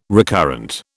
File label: "recurrent", British